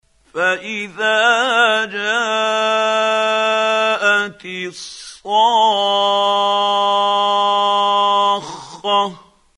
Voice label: quran, Hussary, tafseer; Abasa